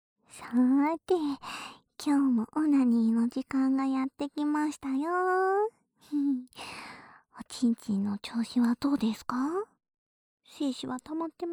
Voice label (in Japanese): オナサポ用耳舐めループ左, ASMR